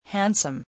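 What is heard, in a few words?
"handsome", English word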